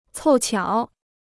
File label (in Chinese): "凑巧", Chinese